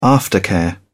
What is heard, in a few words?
"aftercare", uk